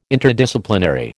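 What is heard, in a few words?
"Interdisciplinary", American English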